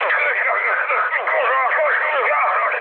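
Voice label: Italian damaged pilot mood med, speech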